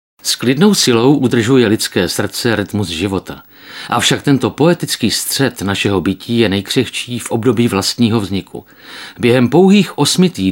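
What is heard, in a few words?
male Czech documentary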